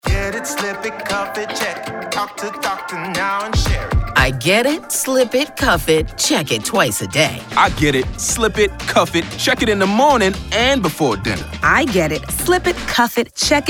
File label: English Radio